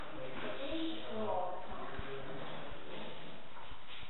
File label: Female Take Your Time w Me